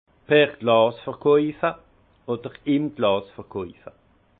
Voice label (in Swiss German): "per glas", alsace; vin; vin au verre